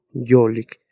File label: "yolik", Náhuatl; despacio; slowy